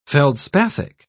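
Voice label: "feldspathic", British English, dictionary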